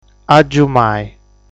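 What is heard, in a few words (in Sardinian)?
"Agiumai", Sardinian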